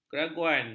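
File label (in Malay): "keraguan", Malay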